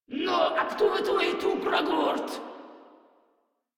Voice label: Patrol Grineer Female; Warframe

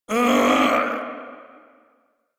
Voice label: Death Male en; Railjack Crew; Arbiters Hexis

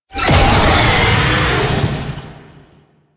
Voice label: Infested Vocal Pain; Enemies; Barks; Lasrian Tank; Warframe

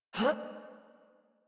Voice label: Adult Operator Jump, en; Warframe; New War

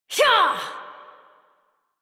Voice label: Adult, en, Dialog; Horse Sprint; Warframe; Duviri Paradox Quest

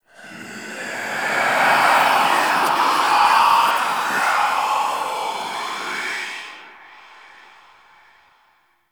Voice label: Whisper; Warframe; Stalker Acolyte

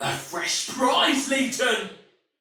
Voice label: See Player Shout, Female, Soldier; Dialog; Barks; Battle; Sinecure

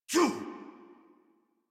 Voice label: Adult Operator Jump, en; Warframe; New War

